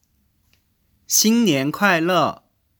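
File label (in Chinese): xinnian kuaile, male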